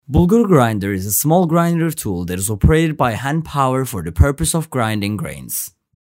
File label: english